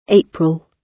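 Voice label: "april", British English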